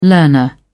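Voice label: "learner", English, British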